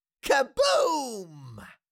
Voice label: Cartoon little monster voice "kaboom"

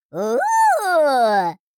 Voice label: surprise exclamation voice; Minion; minions

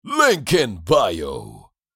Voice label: Link in bio social media male; shorts sound effects

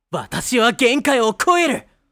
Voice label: I will surpass my limits anime boy character

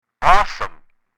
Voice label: Walkie talkie conversation "awesome"

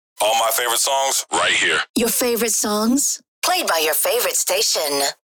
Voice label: Vocals radio voice sweeper your favorite songs

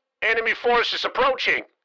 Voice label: Military radio voice; enemy forces approaching

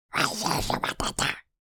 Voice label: Alien creature command or warning

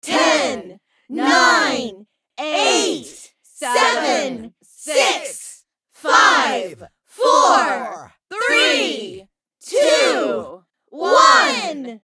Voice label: Female crowd countdown

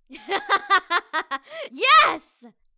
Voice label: Female warrior yes

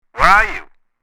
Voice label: Walkie talkie conversation right

